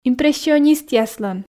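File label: "импрессионистъяслӧн", Komi, female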